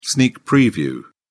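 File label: "sneak preview", British English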